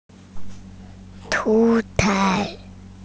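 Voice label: "shu1 cai4", Mandarin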